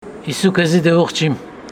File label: Homshetsi, Speaker, i will not give it to you